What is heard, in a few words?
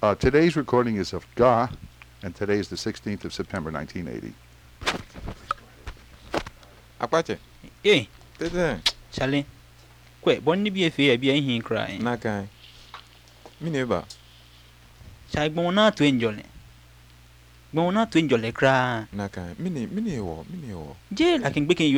conversation, Ga